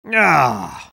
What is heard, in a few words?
spy negative vocalization; tf2